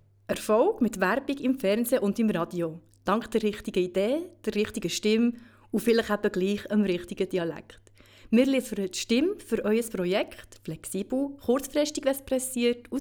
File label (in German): Sprechprobe f